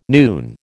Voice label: "noon", American

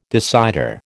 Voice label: "decider", American

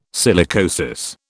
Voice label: "silicosis", British

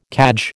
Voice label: "cadge", American

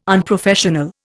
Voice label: "unprofessional", Indian

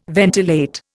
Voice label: "ventilate", Indian

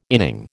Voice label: "inning", American

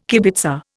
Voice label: "kibitzer", Indian

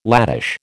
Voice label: "latish", American

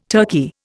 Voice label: "turkey", Indian

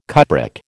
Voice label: "cupric", American

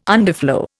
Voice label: "underflow", Indian

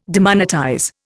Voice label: "demonetize", Indian

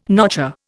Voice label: "notcher", Indian

